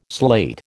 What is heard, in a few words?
"slate", American